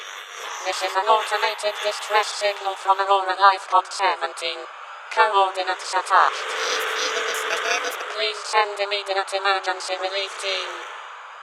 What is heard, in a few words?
Radio Lifepod